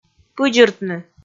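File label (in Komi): "пыдзыртны", Komi, female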